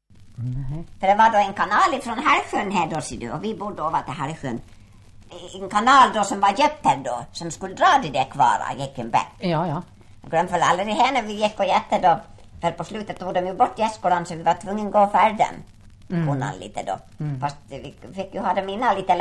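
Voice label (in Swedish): Ål Dalarna, dialekter; Folkminnen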